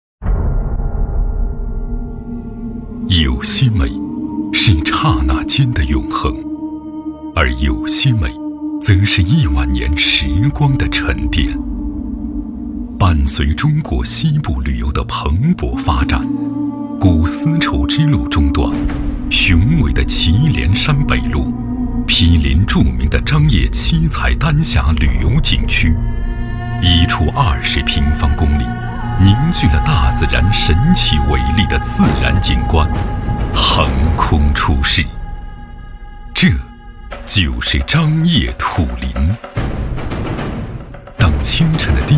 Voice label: 男 纪录 风景 片 大气厚重 张掖土林

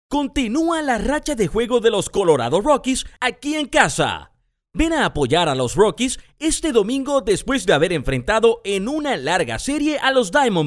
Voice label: 西班牙语男 新闻播报

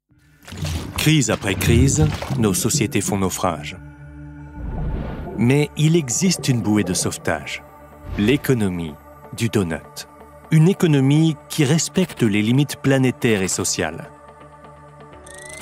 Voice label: 轻快自然, 解说; 捐赠经济

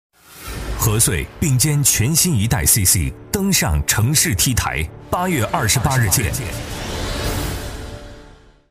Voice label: 男, 视频, 宣传广告记录篇

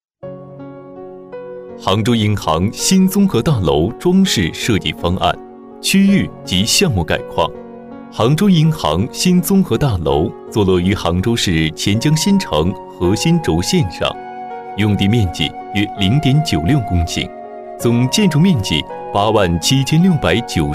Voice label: 男 专题; 杭州银行综合大楼

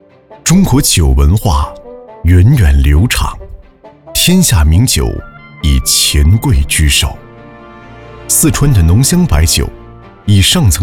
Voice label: 男,大气品质; 富裕老窖

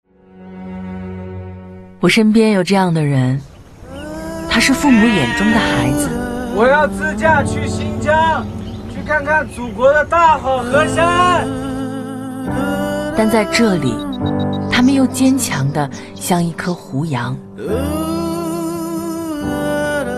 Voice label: 女 宣传片; 我身边的这些人

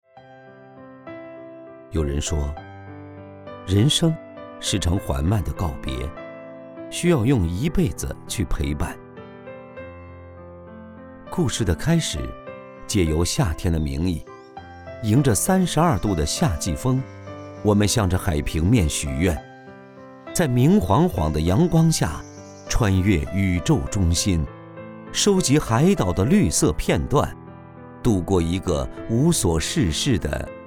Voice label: 男 走心旁白; 文字剧本

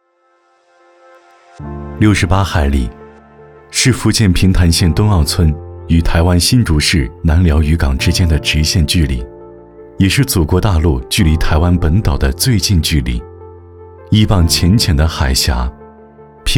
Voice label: 男 专题 温情; 台青在平潭

